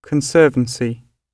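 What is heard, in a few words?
"x", British English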